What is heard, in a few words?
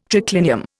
"triclinium", Indian